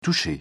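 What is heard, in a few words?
"toucher", French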